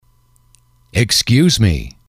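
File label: "excuse me", English, male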